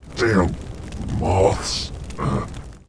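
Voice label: Hero firelord pissed, English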